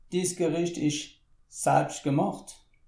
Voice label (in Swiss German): "selbstgemacht", alsace